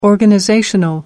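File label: "organizational", British English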